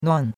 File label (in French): "nuan4", chinois; Cours-de-Chinois